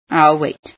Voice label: ill wait, female